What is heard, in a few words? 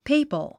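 "papal", English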